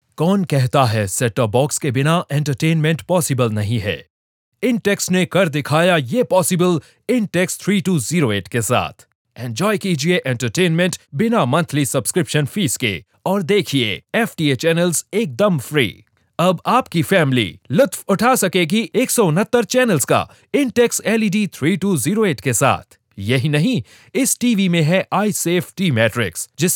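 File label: hindi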